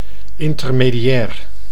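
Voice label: "intermediair", Dutch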